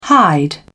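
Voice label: "hide", English, British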